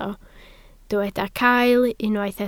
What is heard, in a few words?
"cael", Welsh